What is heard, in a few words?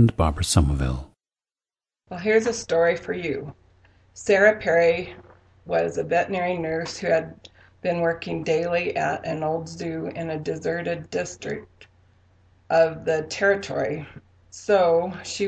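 colorado, International Dialects of English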